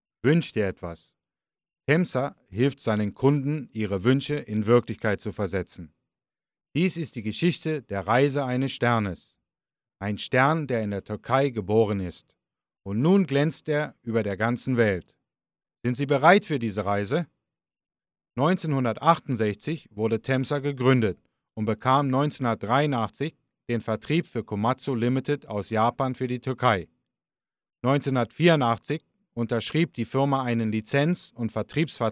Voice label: GERMAN MAN